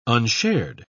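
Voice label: "unshared", British English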